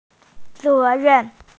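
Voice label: "zé rèn", Mandarin Chinese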